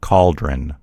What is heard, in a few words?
"cauldron", en US; gcompris